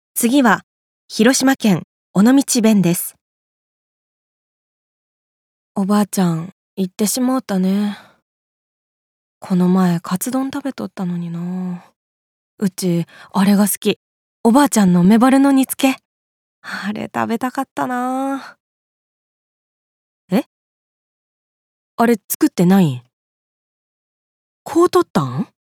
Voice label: 方言

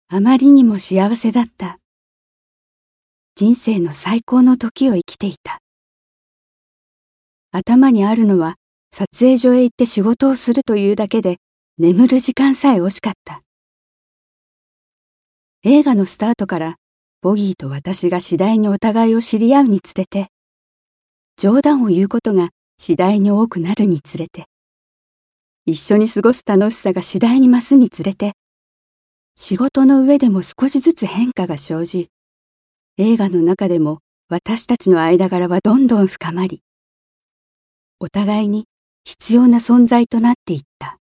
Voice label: 朗読

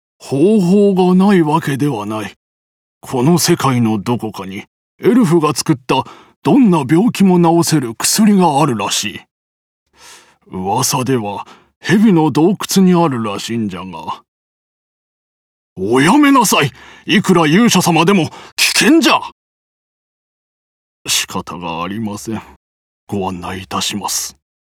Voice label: ダンジョンの案内老人

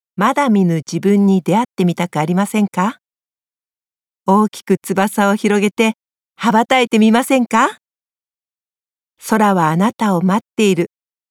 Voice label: 旅行会社CM

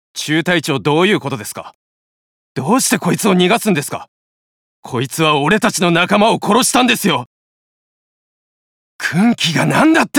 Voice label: 仲間の仇を討とうとする青年兵; 仲間想いの青年兵

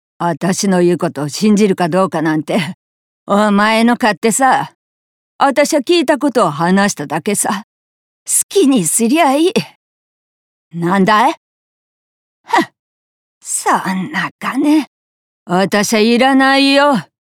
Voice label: やさぐれ老人

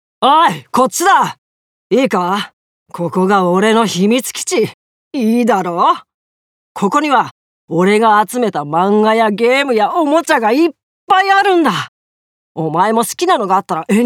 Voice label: 少年

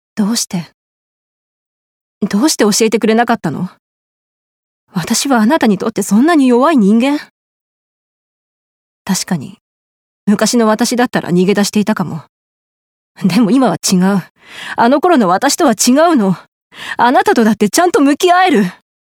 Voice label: 彼と口論

